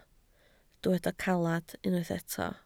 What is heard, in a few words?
"caled", Welsh